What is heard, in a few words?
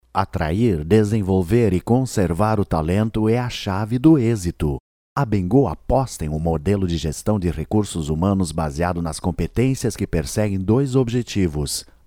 portuguese brazilian impersonations; Voice over talent, with a warm, clear and friendly voice